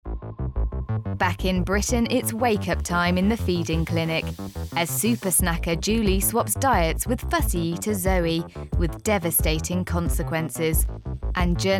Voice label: Narration; Supersize Vs Superskinny